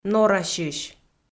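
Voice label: "норасьысь", Komi, female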